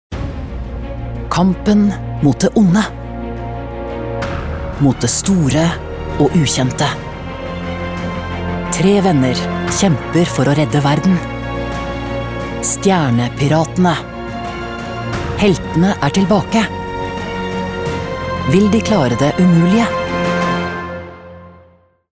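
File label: Norwegian movie trailer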